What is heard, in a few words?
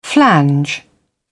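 "flange", British English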